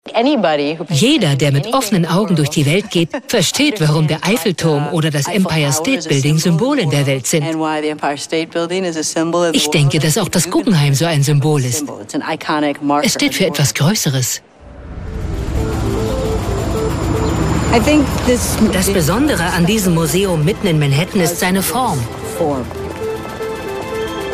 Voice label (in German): VOICE-OVER TV-Doku; The Art of Museums Julie Mehretu